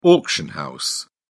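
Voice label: "auction house", British English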